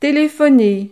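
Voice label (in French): "telephoner", French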